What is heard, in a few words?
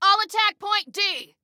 attack, Female